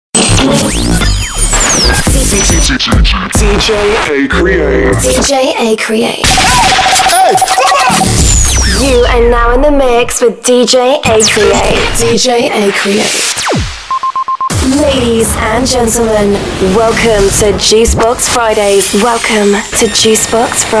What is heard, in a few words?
Female Voice